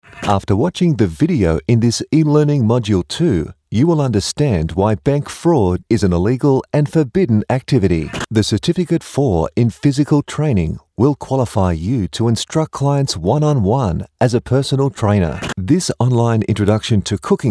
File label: Explainer